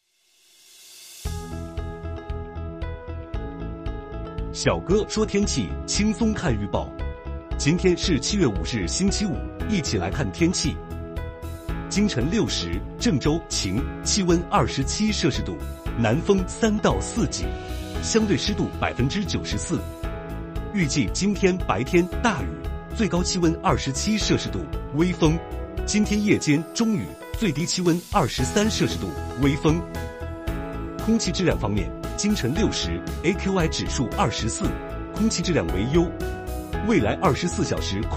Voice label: 天气预报 配音

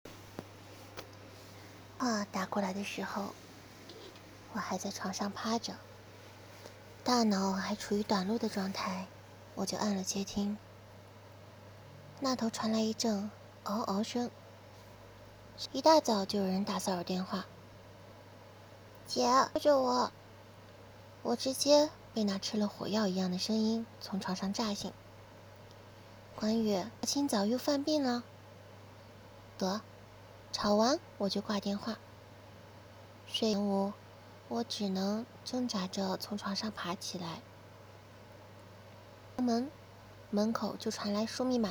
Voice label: 少女音带萝莉

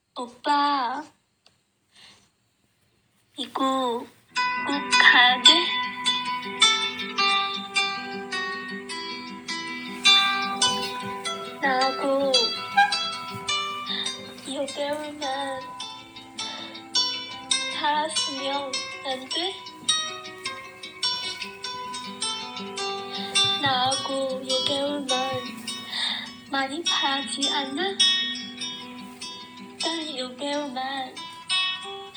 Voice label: 韩语配音

